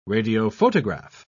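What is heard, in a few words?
"radiophotograph", British English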